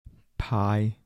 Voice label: "派" pai55, 台城, 男

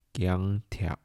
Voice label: "颈憡", 台城, 男; 台山话

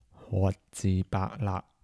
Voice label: "脫至白肋", 台城, 男

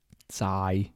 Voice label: "制" 台城 男

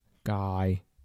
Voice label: "鸡", 台城, 男; 台山话

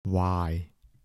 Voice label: "坏", 台城, 男

